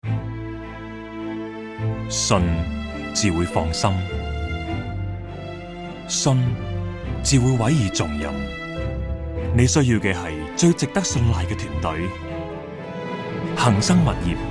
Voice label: TVC